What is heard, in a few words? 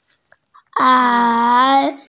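"er3", Mandarin Chinese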